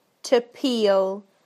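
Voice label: "to peel", British English; PictureDictionary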